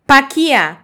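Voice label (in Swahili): "pakia", Swahili, Kenya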